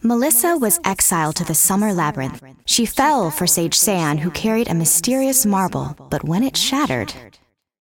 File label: cinematic voice es; lineage2